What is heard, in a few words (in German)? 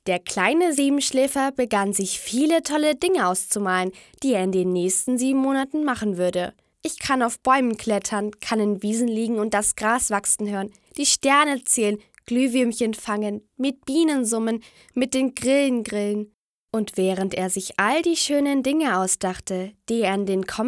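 Mädchen; Der Siebenschläfer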